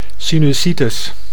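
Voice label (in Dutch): "sinusitis", Dutch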